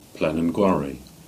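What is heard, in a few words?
"Plain an Gwarry" Cornish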